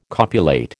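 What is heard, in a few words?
"copulate", American English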